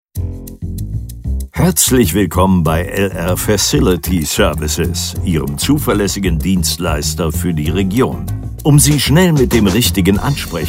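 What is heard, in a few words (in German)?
Gebäudereinigung IVR; ansage reinigungsdienst gebaeudereinigung facility service management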